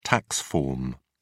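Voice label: "tax form", British English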